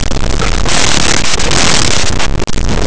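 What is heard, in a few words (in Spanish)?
"agujero", Spanish, fricatives; laboratori fonetica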